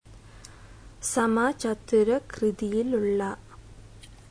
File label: "square", Malayalam; samachathurakrithiyilula